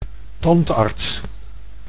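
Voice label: "tandarts", Dutch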